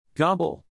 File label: "gobble", turkey, neural; animal sounds in english; Turkeys; Stephen